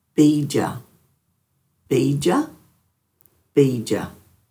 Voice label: "biidja", Dhurga